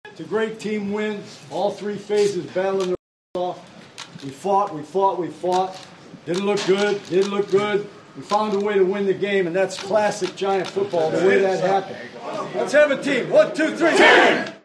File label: POST GAME LOCKER ROOM SPEECH